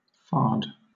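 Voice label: "fard", British English; pronunciations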